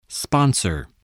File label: "sponsor", voice